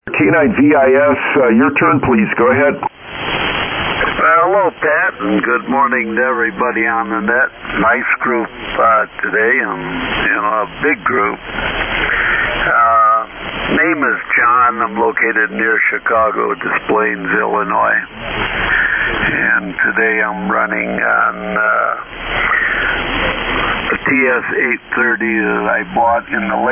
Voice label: Kenwood TS-830 MC-50 Mic & SB-200 Amp; DesPlaines, IL.